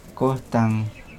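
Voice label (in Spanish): Nawat palabras montaña; pipil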